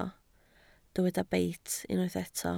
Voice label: "beit" Welsh

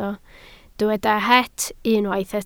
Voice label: "het" Welsh

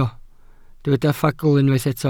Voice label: "ffagl" Welsh

